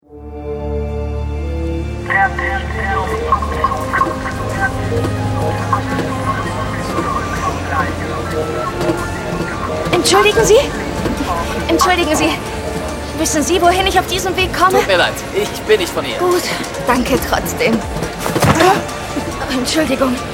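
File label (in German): Hörspiel; Doctor Who Hoerspiel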